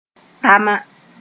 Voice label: "tama", moore

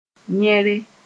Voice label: "yẽre", moore

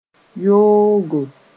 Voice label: "yoogo", moore; bad (speaking of character)

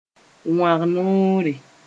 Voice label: "wãg-noore", Mooré; ridge

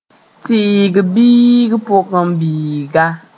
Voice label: "tɩɩg biig pʋgẽ biiga", moore

